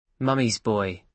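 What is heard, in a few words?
"mummy's boy" English, British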